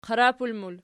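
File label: "qarapul" plural, Archi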